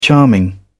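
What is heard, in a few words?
"charming", British English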